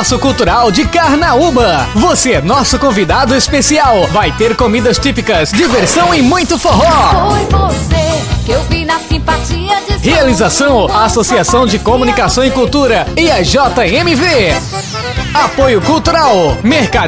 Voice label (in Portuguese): comercial da quadrilha